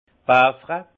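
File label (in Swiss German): "baffre", alsace Haut-Rhin; vin; beaucoup boire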